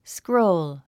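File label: "scroll", 英単語 発音; skróul スクロウル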